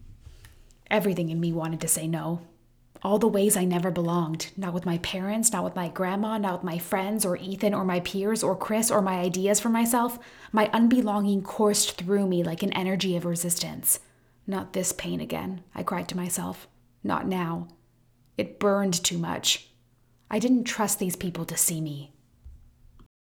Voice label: Narration Vocal